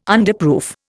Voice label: "Underproof", Indian